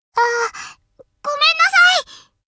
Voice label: sorry, voice commands Anime Loli; svencoop